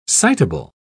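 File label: "citable", British English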